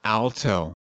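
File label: "alto", USA, male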